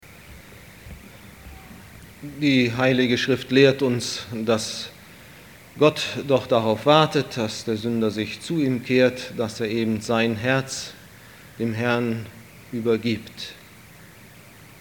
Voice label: Ansage; Gott, der an die Menschen denkt

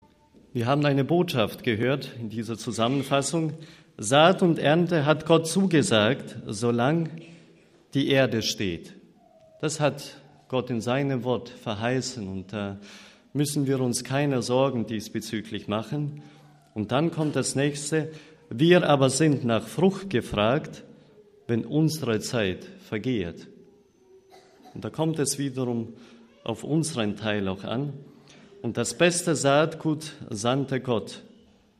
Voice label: Ansage

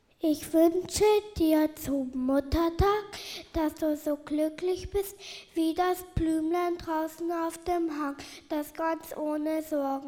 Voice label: Kleinste Gruppe Gedicht

